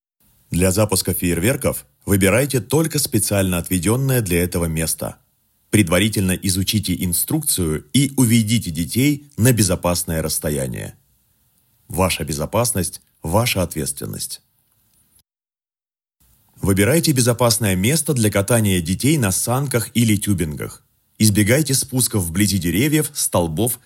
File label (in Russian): zvukovaya sots reklama pirotehnika